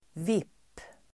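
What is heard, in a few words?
"VIP", Swedish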